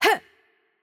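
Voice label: Hungarian attack light voice; bloom minigame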